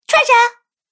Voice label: Cartoon Girl Treasure, Cartoon Voice Sound Effects